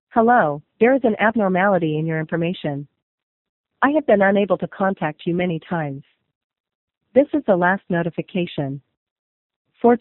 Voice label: Different kinds of scam phone calls